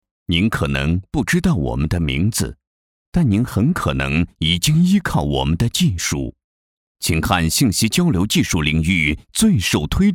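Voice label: chinese mandarin male commercial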